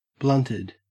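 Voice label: "blunted", English, Australian accent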